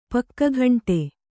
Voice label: "ಪಕ್ಕಗಂಟೆ", Kannada

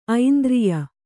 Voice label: "ಐಂದ್ರಿಯ", Kannada

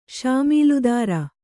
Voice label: "ಶಾಮೀಲುದಾರ", Kannada; śamīludāra; noun

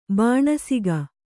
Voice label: "ಬಾಣಸಿಗ", kannada

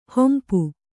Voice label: "ಹಂಪಿ", Kannada

